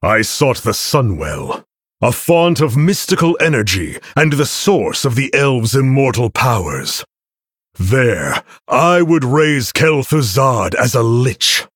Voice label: Male Human Boss Reveal; Arthas